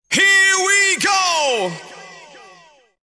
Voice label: Jingle, Here we go; kermis; Sound Around